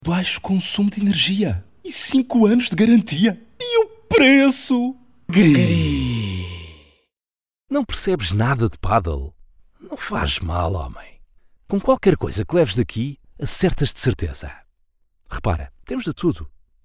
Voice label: PT character audioreel